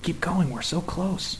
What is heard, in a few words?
"keep going", hostage